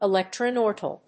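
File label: "Electroneutral", English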